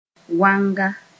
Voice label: "wanga", Mooré